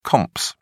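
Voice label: "comps", English, British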